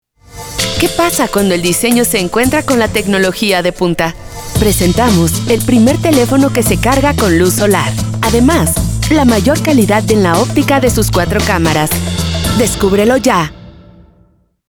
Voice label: Technology Innovation Millenial Trendy